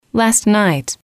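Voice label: last night, English, female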